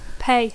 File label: "pay", English